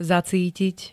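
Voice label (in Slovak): "zacítiť", Slovak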